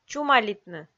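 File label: "чумалитны", Komi-Zyrian, female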